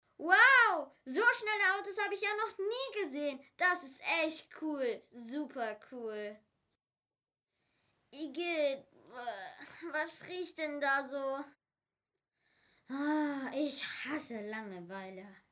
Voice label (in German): emotion